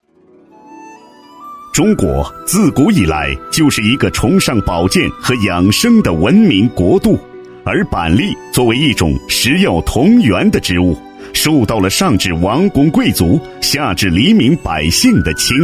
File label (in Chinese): 男 专题 介绍, 国语男声配音, 浑厚; 板栗酒; 浑厚专题